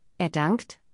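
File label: pronunciation er dankt, German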